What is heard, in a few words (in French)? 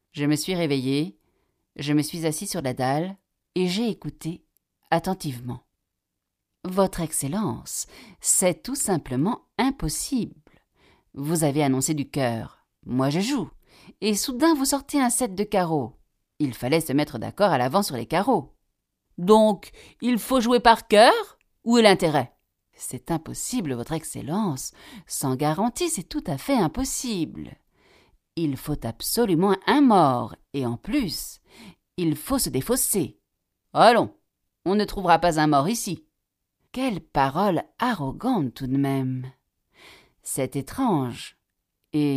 Audiolivre, voix off femme; Bobok